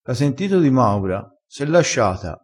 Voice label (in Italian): "piglia", crespinese